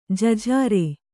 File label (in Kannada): "ಜಜ್ಜರ", Kannada